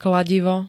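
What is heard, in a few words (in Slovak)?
"kladivo", Slovak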